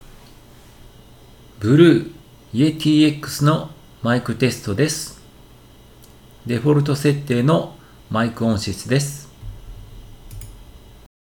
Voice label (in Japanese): Yeti X デフォルト設定